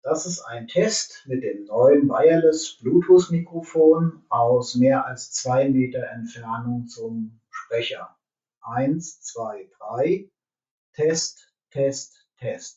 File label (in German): wireless Konferenz Mikrofon, >2 Meter Abstand zum Sprecher; COMREON® SINGLE/TWIN wireless Konferenz Mikrofon mit BT 5.0 Verbindung zum Rechner getestet